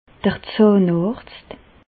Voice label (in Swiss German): "zahnarzt", Bas Rhin; medecine; dentiste